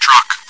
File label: chitchat, bot, voice commands; svencoop